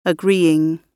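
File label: "agreeing", British English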